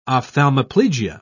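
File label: "ophthalmoplegia", British English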